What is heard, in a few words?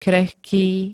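"krehký", Slovak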